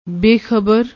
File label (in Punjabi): "bekhabar", Punjabi